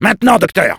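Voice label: Soldier activate charge, French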